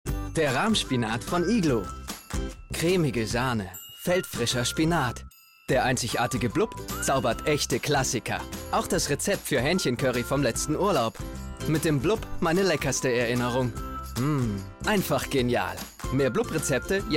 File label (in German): Werbung; iglo